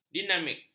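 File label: "dinamik", Malay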